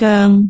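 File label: "goeng6", Cantonese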